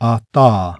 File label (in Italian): Sonora mormorata; IPA